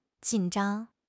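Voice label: "jin3 zhang1", Mandarin Chinese; HSK4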